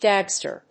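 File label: "gagster", English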